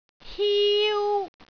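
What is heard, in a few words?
"hiu1", Cantonese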